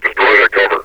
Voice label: destroy that cover, metropolice vo; Half Life Alyx